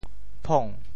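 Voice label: "phong2", Teochew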